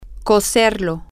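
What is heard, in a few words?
to cook it, Spanish, female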